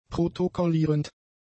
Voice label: "protokollierend", German; adjectives

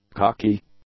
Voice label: "cocky", English; adjectives

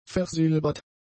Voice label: "versilbert", German; adjectives

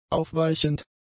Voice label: "aufweichend", German; adjectives

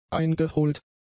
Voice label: "eingeholt", German; adjectives

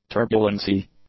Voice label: "turbulency", English; nouns

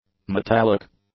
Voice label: "metallic", English; adjectives

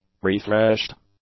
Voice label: "refreshed", English; adjectives

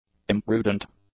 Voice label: "imprudent", English; adjectives

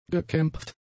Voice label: "gekämpft", German; adjectives

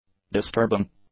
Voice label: "disturbing", English; adjectives

